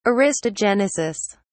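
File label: "aristogenesis", British English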